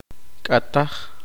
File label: poqomchi; quemar